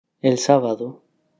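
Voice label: pronunciation el sabado, Spanish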